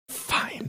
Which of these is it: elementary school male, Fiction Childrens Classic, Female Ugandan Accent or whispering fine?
whispering fine